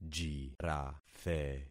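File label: "jirathe", concatenated syllables; Affixing experiment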